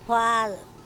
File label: "phal", Andamanese